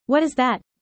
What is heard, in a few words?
user fast; Animal friends